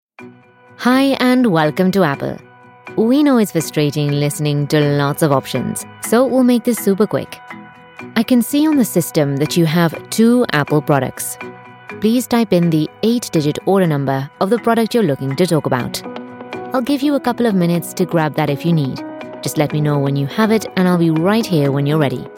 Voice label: telephone ivr

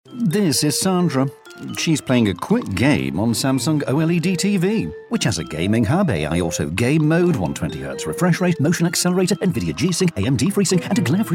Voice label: commercial, British Believable Voice

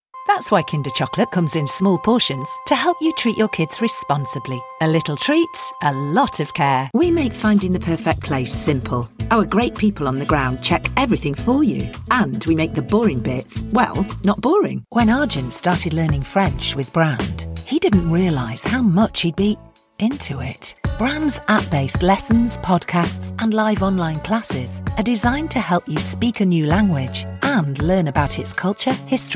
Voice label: radio ads reel

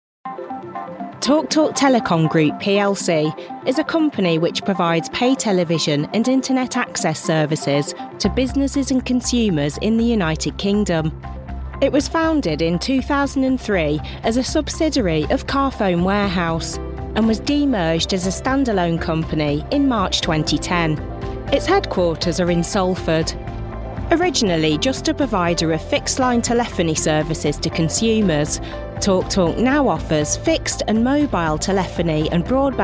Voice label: corporate northern

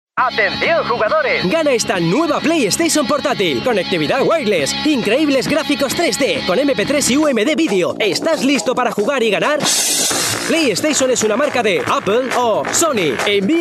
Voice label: spanish male videogames

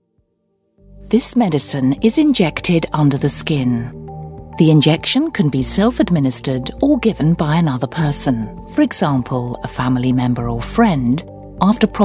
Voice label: explainer

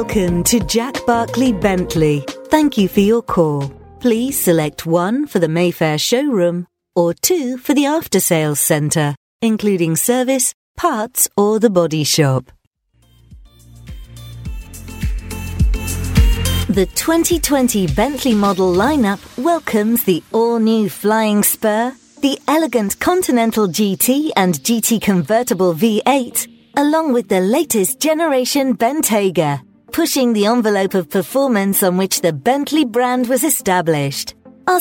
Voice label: on hold IVR

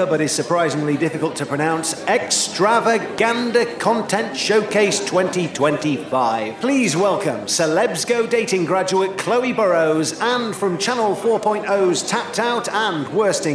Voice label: voice of god

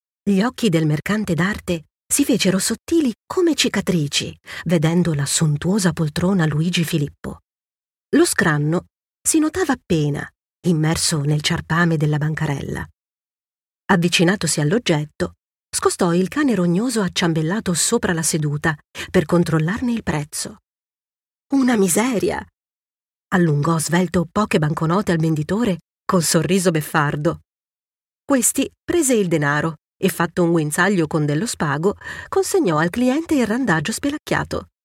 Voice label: italian voice audiobook

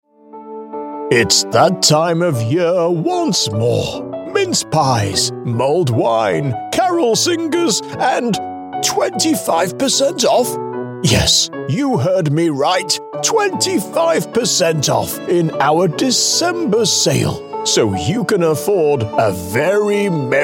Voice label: santa voice

